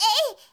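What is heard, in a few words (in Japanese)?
"ei", Japanese girl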